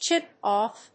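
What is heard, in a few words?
"chip-off", English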